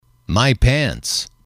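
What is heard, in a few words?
"pants", English, male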